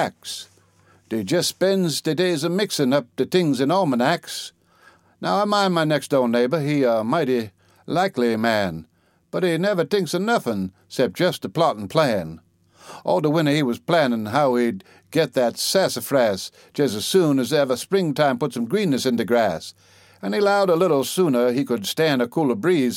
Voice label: foolin wid de seasons, humour and dialect